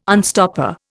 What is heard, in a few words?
"unstopper", Indian